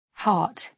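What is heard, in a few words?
"heart", British English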